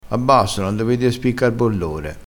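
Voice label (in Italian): "bollore", vocabolario dialettale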